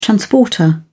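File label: "transporter", British English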